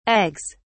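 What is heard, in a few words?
"eggs", British English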